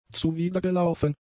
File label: "zuwidergelaufen", German; adjectives